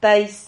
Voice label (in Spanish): "tais", silabas